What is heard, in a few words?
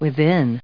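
"within", English, US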